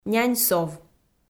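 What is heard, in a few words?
"нянь-сов", Komi, female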